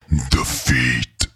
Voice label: Defeat, Announcer; liblast